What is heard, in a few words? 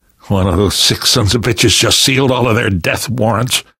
grief teen girl, survivor voice; source engine; left 4 dead 2; namvet